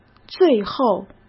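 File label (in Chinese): "最后", Mandarin Chinese; chinese-flashcards; hsk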